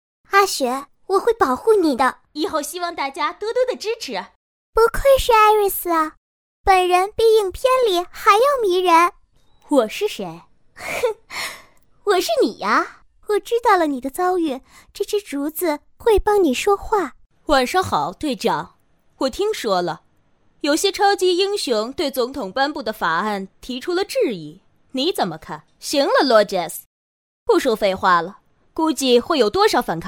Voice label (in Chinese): 女 舞台剧各种